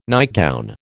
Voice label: "nightgown", American

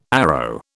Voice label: "arrow", British

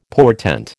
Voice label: "portent", American

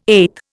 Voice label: "eth", Indian

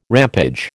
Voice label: "rampage", American

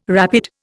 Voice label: "rapid", Indian

